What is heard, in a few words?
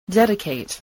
"dedicate", English